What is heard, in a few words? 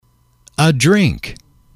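a Drink, english, male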